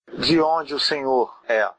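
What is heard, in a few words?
where from, Brazilian Portuguese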